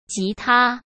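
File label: "吉他" Mandarin Chinese; hsk; chinese-flashcards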